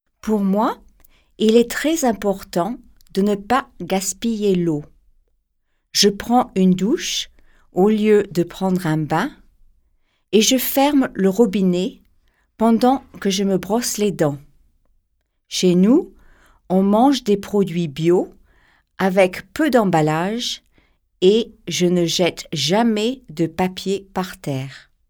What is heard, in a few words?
french environment